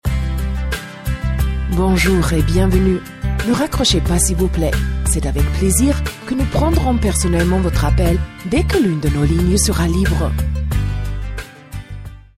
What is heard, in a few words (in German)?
franzoesisch